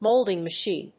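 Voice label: "molding machine", English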